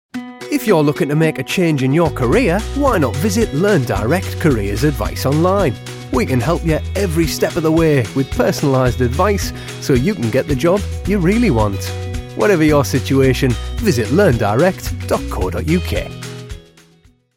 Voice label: advert; learn direct